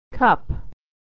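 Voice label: "cup", American English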